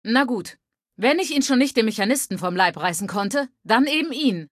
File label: Female adult default